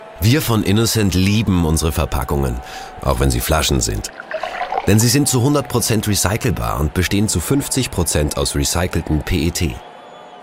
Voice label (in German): Infoclip